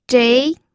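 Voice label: "zhei2", Mandarin Chinese pinyin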